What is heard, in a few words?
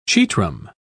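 "chetrum", British English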